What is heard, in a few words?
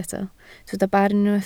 "barn", Welsh